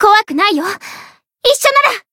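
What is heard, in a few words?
Voice limit break, Japanese; nona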